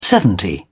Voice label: "seventy", English